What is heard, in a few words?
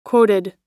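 "quoted", American English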